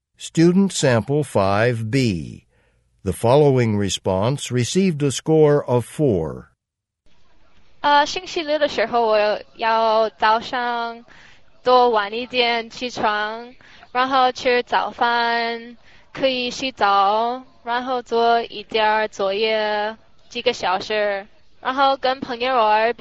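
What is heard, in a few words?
chinese conversation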